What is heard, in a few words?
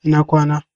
good morning, hausa